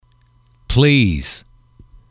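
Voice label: "please", English, male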